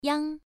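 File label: "yang1", Chinese pronunciation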